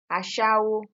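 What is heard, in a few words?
"ashawo", Nigerian Pidgin, female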